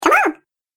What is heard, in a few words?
Cartoon Game Voice Come On